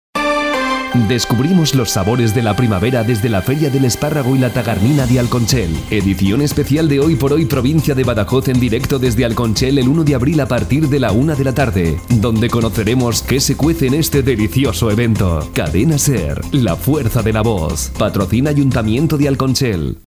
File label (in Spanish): PROMO ESPARRAGO Y TAGARNINA; feria del esparrago y la tagarnina